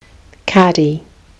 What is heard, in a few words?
"cardie", kiwi english